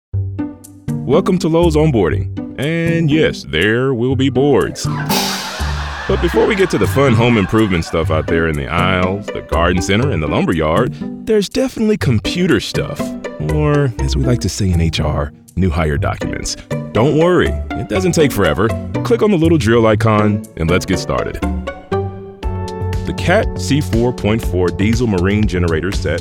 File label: E LEARNING MIX